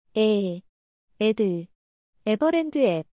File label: speech "eh", korean